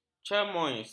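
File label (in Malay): "chamois", Malay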